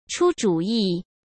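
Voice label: "出主意", Mandarin Chinese; chinese flashcards; hsk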